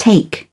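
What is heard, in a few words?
"take something up", English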